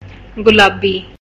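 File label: pink, Serbian; gcompris-data; colors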